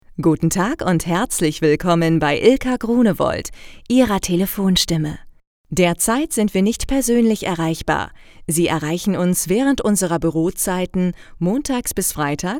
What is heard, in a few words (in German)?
telefonansage; Podcast Moderatorin & professionelle Sprecherin